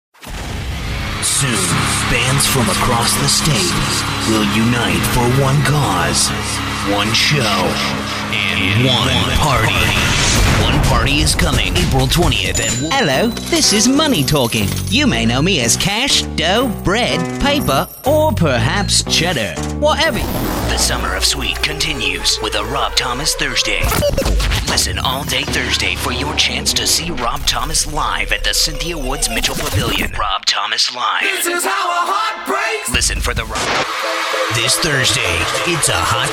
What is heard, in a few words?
imaging, broadcast